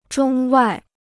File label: "中外", Chinese